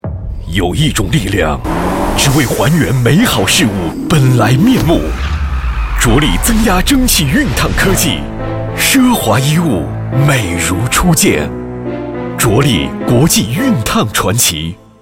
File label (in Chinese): 电熨, TVC广告-普通话-真人商业配音; 卓力电熨